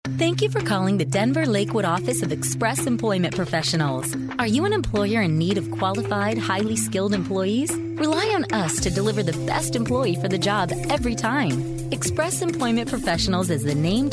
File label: On Hold